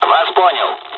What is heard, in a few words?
dialogs russian; War Thunder